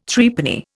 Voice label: "Threepenny", Indian

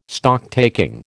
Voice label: "Stocktaking", American

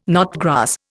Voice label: "knotgrass", Indian

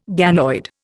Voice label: "ganoid", Indian

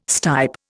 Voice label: "stipe", Indian

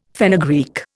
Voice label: "fenugreek", Indian

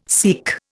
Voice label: "seek", Indian

